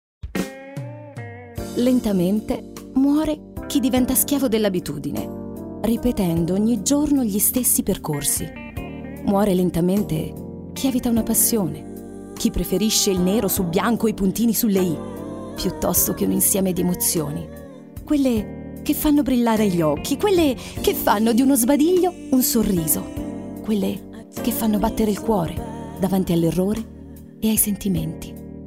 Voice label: Spot